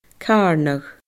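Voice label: "carnadh", Scottish Gaelic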